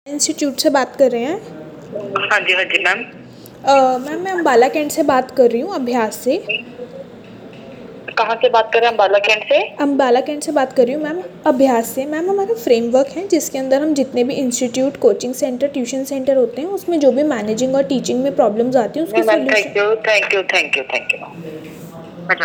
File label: call recording